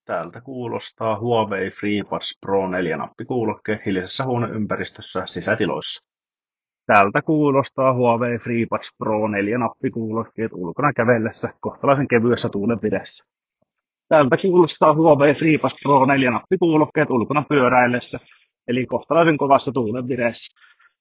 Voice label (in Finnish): mikrofonit, nappikuulokkeet; Huawei FreeBuds Pro 4